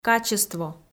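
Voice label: "качество", Komi, female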